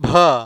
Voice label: "bha", consonants

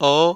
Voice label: "o", vowel

